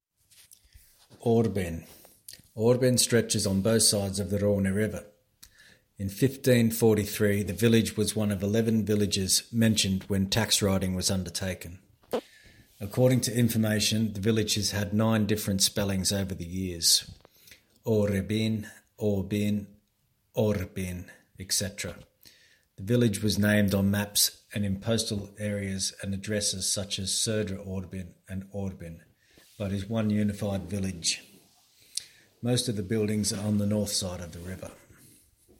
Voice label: English voice; Orrbyn